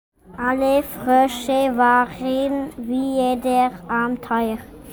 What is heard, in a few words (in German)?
Sprachmemo